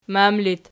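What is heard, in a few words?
"mam" locative, Archi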